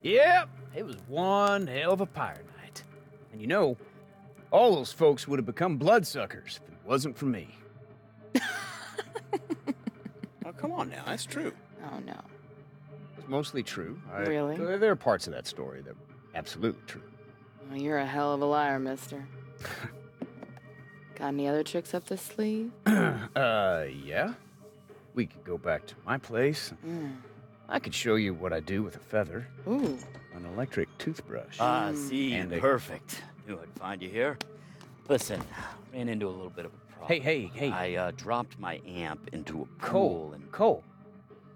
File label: dialogue english; outro; inFAMOUS Festival of Blood; ps3